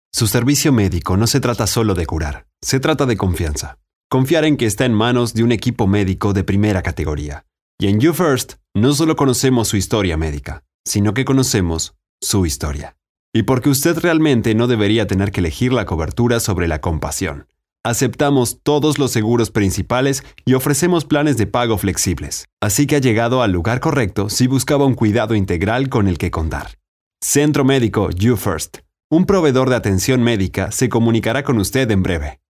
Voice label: Sincere